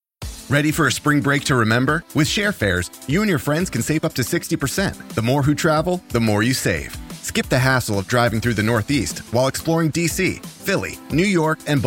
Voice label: travel commercial

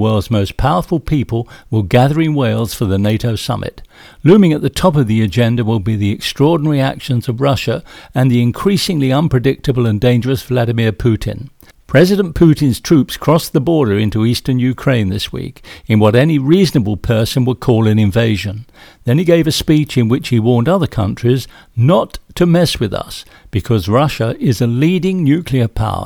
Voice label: Narrative news reader

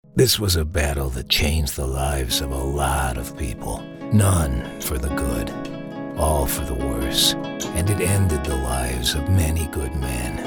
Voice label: Voice Actor Video Games Animation

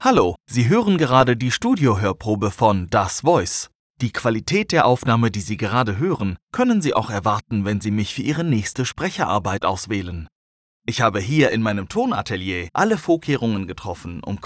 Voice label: Voice Studio

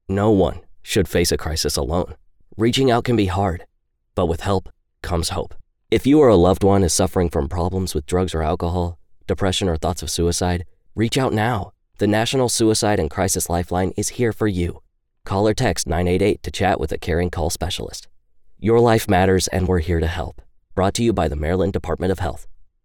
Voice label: Public Health PSA; Maryland

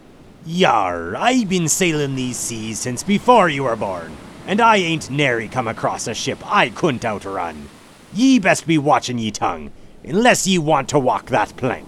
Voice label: Pirate and Parrot

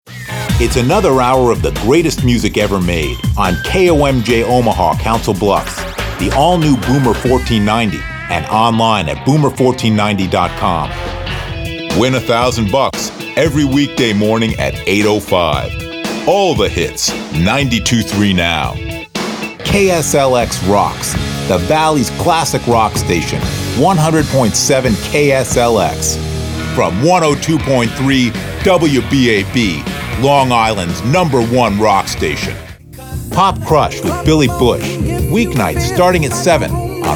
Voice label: Aircheck

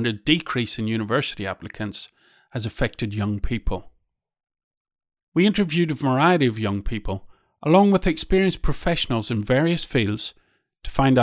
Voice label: Documentary

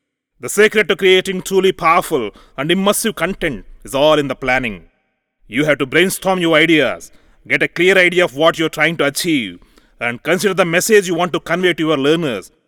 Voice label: ENGLISH E LEARNING

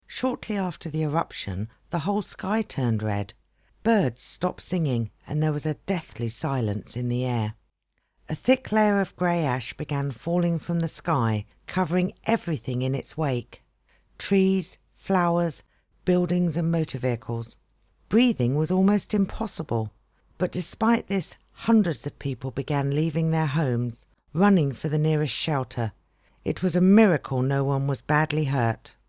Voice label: Documentary

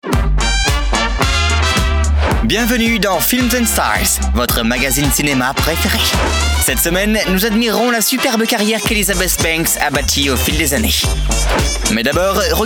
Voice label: TV show voice over; Films And Stars

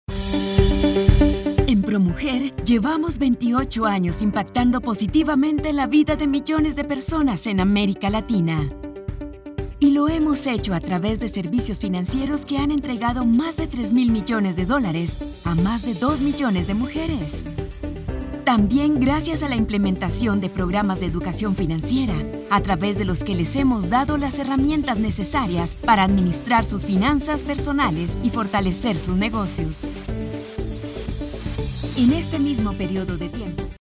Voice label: SPOT TV; PROMUJER

